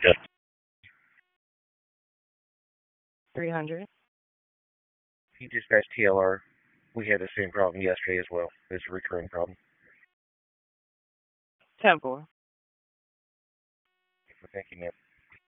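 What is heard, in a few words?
Police Northeast District Dispatch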